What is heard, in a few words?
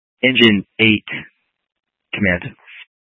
Incident Tac, scanner; Incident Tac 1